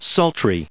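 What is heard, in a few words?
"sultry", US English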